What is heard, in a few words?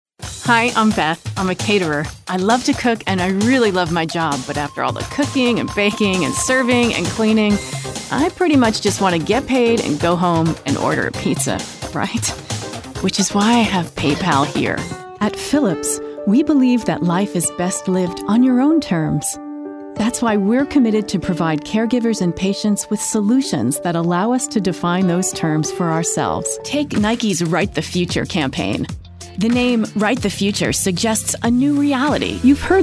Commercial